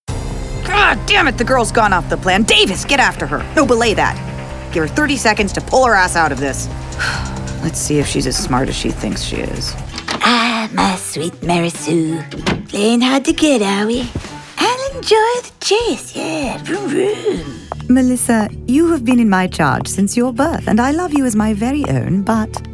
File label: vo animation